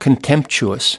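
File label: "contemptuous", US English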